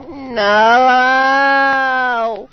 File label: "no", demon woman; expletives